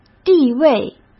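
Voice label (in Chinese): "地位", Mandarin Chinese; chinese-flashcards; hsk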